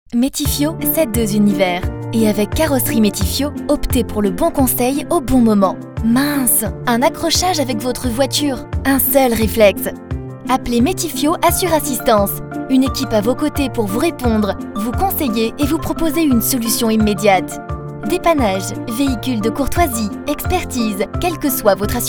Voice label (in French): voix off; mettifiot